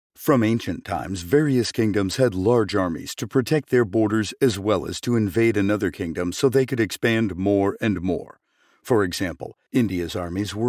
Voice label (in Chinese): 外籍男英 外语 美式英语 旁白类